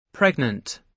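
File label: "pregnant" English, British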